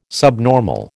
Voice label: "subnormal", American

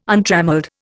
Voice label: "untrammelled", Indian

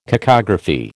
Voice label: "cacography", American

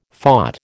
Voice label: "phot", American

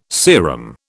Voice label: "serum", British

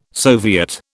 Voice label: "soviet", British

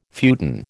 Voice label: "futon", American

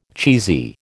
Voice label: "cheesy", American

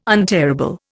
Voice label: "untearable", Indian

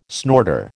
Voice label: "snorter", American

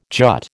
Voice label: "jut", American

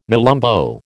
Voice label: "nelumbo", American

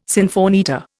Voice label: "sinfonietta", Indian

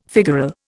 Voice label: "figural", Indian

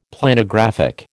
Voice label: "Planographic", American

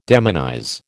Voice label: "demonize", American

